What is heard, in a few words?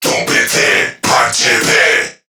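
Attack Crewmen, English; Dialog; Barks; Battle; Warframe; CorpusCrewmen; CrewmanA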